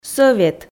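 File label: "сӧвет", Komi, female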